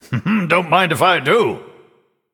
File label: Male Nightborne; Nourish